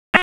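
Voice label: Sheriff's Office Dispatch; p25; wake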